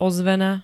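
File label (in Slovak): "ozvena", Slovak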